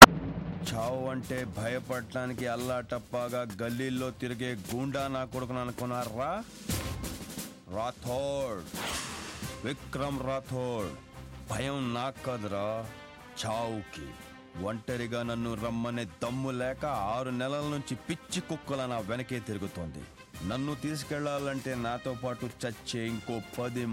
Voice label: Dialog; Vikramrkudu